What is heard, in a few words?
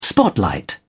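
"spotlight", British English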